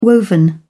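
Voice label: "woven", British English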